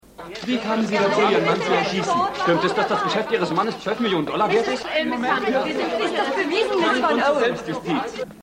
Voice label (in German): Reporter Reporterin